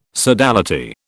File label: "sodality", British